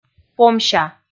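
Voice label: "помся", Komi, female; kpv audio dict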